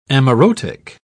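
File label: "amaurotic", English, British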